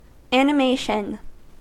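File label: "animation", American English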